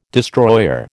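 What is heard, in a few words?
"destroyer", American English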